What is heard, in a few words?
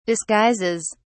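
"disguises", British English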